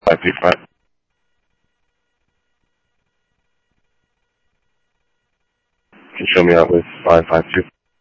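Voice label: Lakes Sheriff Lake Patrol, scanner audio trunk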